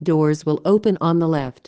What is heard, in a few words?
exit left, automatic announcements; seattle; soundtransit; Link KI Fleet